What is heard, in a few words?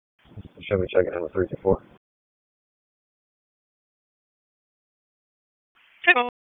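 Police Northeast District Dispatch; Raleigh; wake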